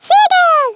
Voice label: Victory, Speech, Swedish; 11khz; International

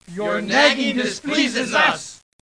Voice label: Nagging; Education Publishing Concepts; PARSONS

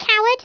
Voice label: "coward", English speech

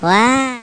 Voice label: "nooooo", english

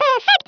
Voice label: "brilliant", Speech, Dutch; International; 11khz

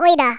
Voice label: bummer, Norwegian, speech; 11khz

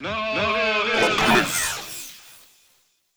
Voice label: VOICEFX TEKNO 140, Techno, Voice

